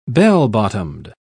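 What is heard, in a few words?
"bell-bottomed", British English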